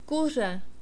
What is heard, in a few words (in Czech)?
kure, czech